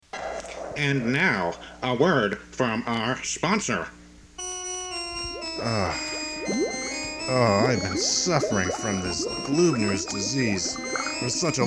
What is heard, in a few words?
Radio Commercial; A Word From Our Sponsor